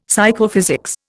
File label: "Psychophysics", Indian; Dictionary